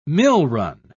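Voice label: "millrun", British English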